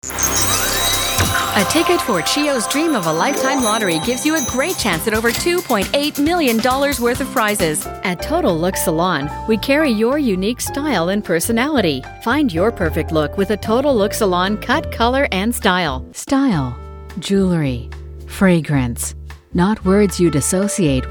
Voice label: english us and canada female commercial

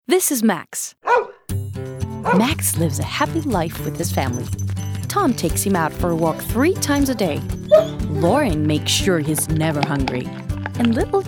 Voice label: english us and canada female e learning

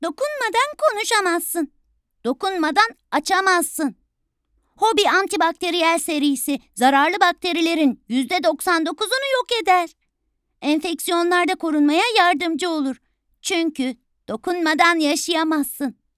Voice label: turkish female generic

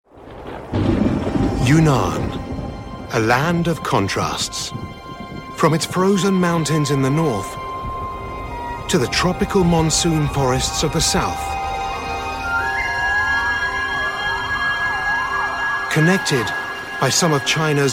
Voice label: english uk male narrative

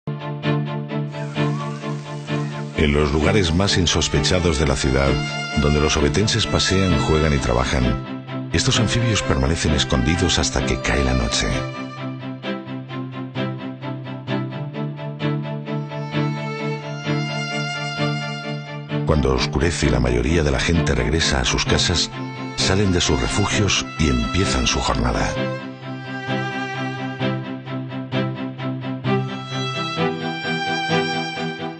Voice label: spanish spain male narrative

